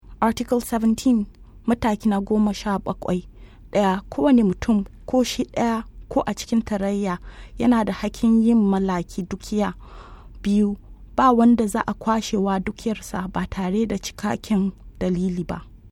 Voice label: Hausa

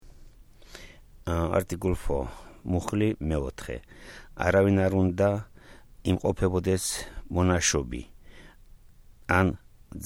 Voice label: Georgian

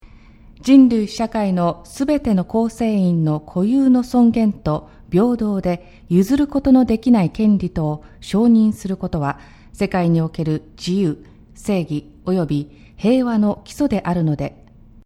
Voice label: Japanese

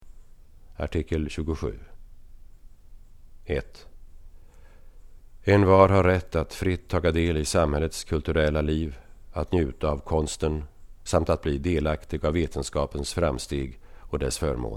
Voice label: Swedish

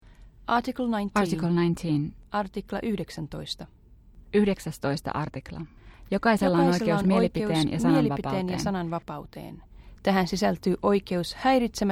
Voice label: Finnish

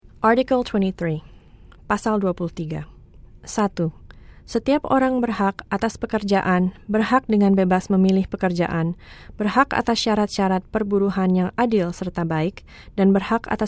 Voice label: Indonesian

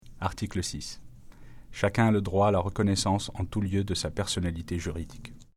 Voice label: French

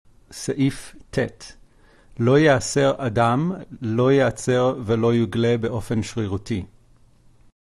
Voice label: Hebrew

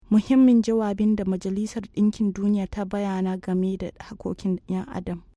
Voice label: Hausa title

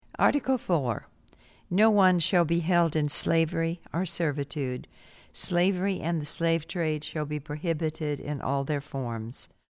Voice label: English

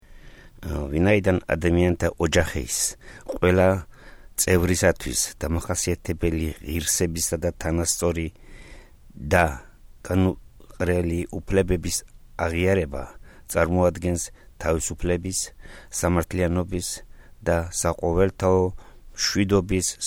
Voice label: Georgian

